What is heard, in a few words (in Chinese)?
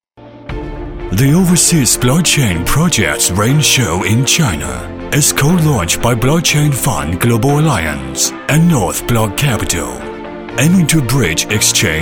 英男, 专题, 英文, 厚重科技感; NBC